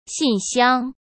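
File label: "信箱", Mandarin Chinese; chinese-flashcards; hsk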